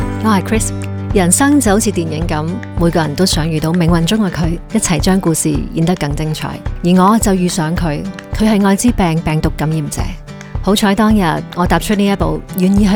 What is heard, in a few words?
Radio Mandarin Version